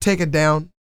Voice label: DIALOG, R&B Dance, FEM. SPOKEN